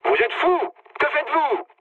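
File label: player killed ally, mood low, French; War Thunder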